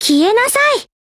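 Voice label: Japanese; PSG1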